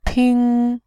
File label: "ping1", voice